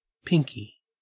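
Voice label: "pinkie", Australian English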